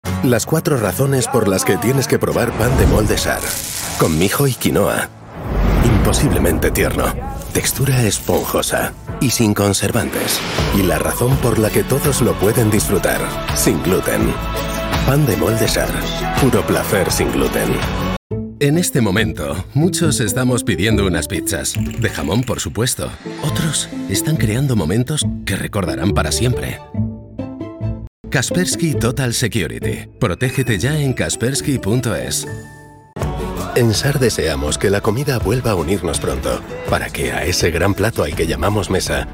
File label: Spanish Castilian TV commercials